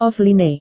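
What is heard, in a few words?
"offline", Indonesian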